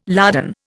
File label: "lardon", Indian